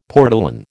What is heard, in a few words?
"portolan", American